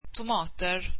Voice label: tomatoes, swedish